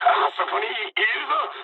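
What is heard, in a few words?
Japanese enemy detected mood med; War Thunder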